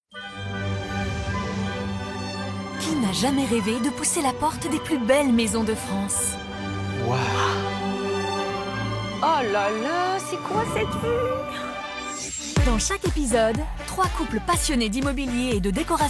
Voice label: Voix Off, Narration; Maisons de reve; TFX

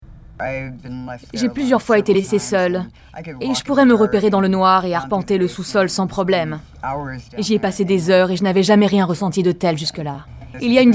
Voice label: Voice Over, Documentaire; Planete

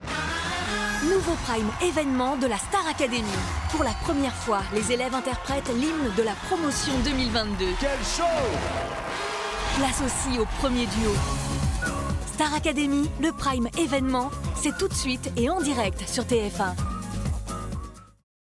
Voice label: Voix Off, bande annonce; STAR ACADEMY PRIME; TF1

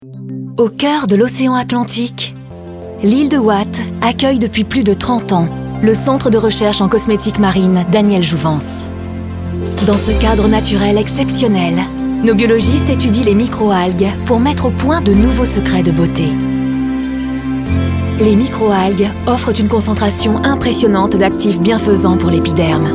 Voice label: Institutionnel, Voix Off; Daniel Jouvance

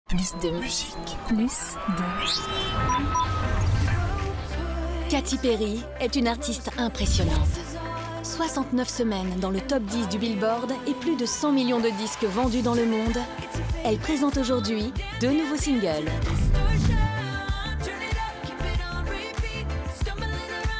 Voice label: Voix Off programme; Plus2Music

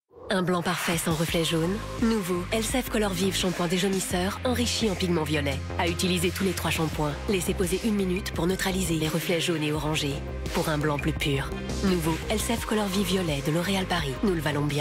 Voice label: Publicite, Voix Off Pub; cheveux blancs; Elseve Color Vive; L'Oreal Paris

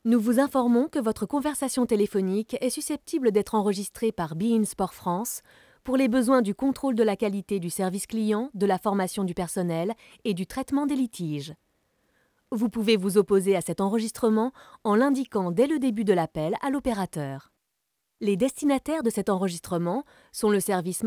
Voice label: Comédienne Voix Off Répondeur Message Informations légales; BeIn Sports

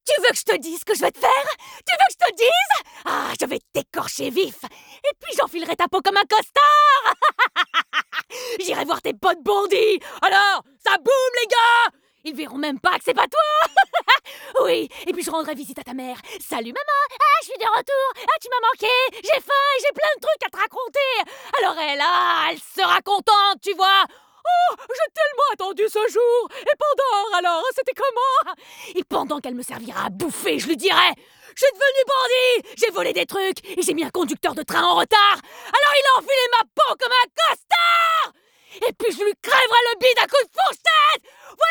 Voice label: Voix Folle Hystérique Grosse Colère

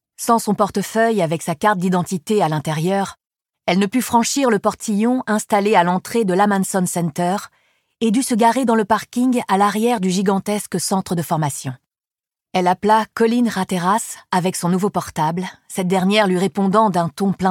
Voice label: LIVRE AUDIO; Renée Ballard; « A qui sait attendre » de Michael Connelly; Série d'enquêtes avec Harry Bosch; audiolib

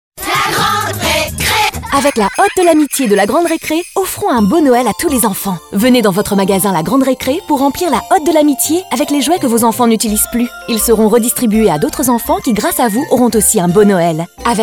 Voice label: Pub radio; La Grande Recre

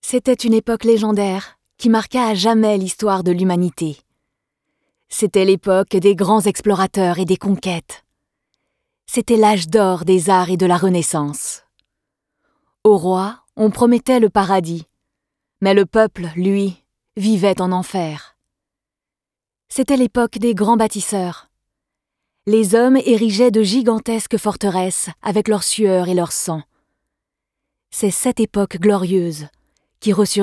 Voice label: Voix Normale, Jeu vidéo

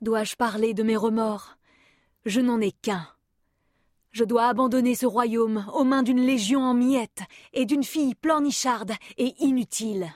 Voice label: Voix Guerriere, Jeu vidéo

